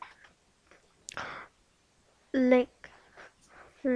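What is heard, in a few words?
"lick", spoken word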